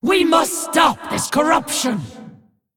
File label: Female Dragon Play